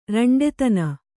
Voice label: "ರಂಡೆತನ", Kannada

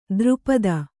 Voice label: "ದ್ರುಪದ", Kannada

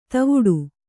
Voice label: "ಧವಡ", Kannada

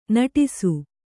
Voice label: "ನಟಿಸು", Kannada

